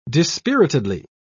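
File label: "dispiritedly", English, British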